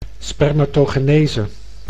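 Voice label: "spermatogenese", Dutch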